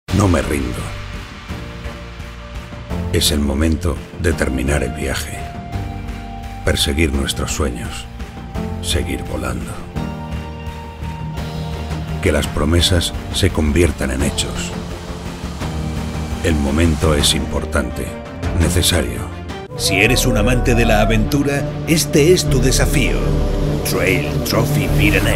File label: Spanish Corporate Video Mono